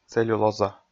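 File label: "целлюлоза", Komi, male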